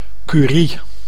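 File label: "curie", Dutch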